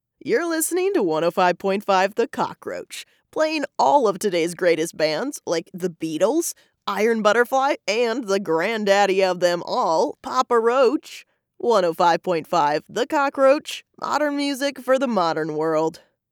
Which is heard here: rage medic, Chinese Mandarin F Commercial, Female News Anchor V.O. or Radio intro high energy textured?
Radio intro high energy textured